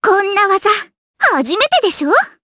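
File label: Vox Skill, voices, Japanese; Rehartna; kingsraid audio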